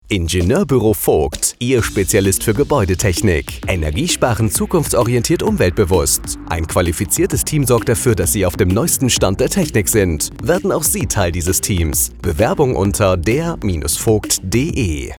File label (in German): Funkspot; Ingenieurbuero Vogt